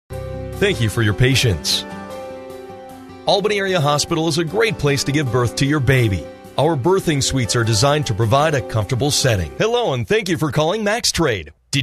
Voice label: english american ivr

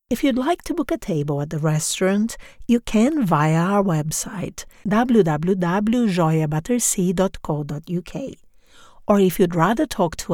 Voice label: english international ivr

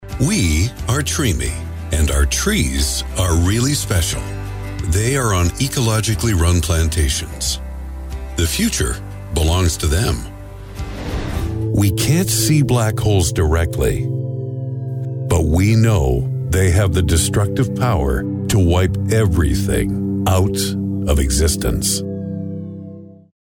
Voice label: english canadian corporate

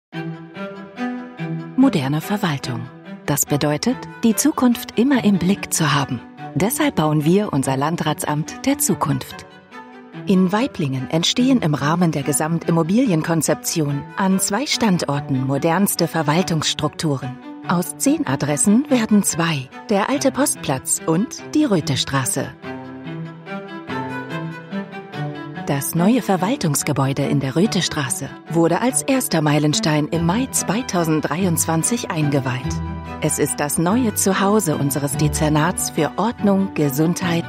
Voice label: german real estate; Germany

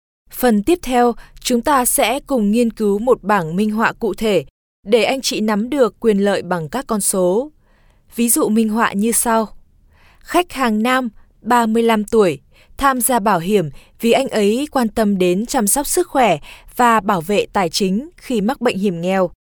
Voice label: vietnamese e-learning; multi-lingual voice actor

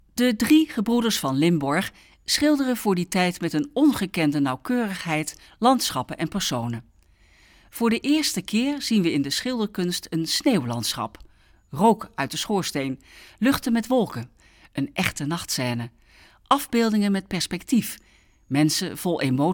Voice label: dutch training e-learning

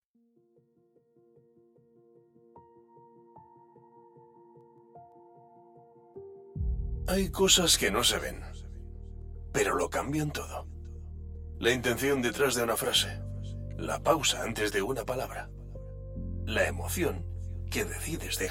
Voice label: spanish podcasts

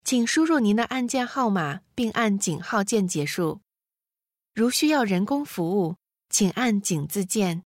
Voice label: chinese mandarin ivr

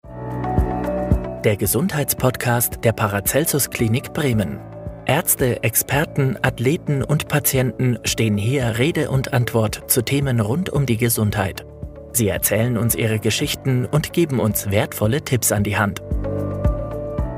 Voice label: german podcasts

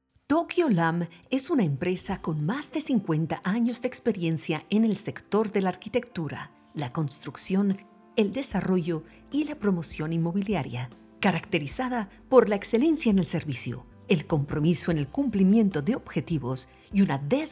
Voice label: spanish latin american corporate videos

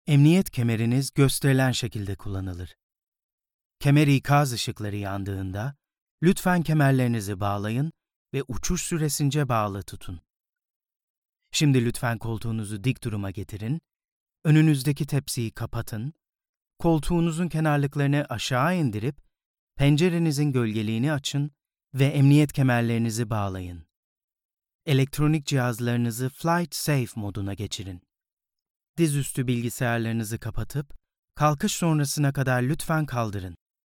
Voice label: turkish

